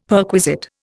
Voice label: "perquisite", Indian; Dictionary

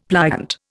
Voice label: "pliant", Indian; Dictionary

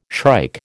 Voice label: "shrike", American

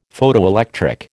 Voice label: "Photoelectric", American

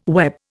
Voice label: "web", Indian

